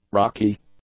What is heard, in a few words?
"rocky", English; adjectives